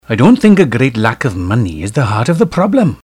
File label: welsh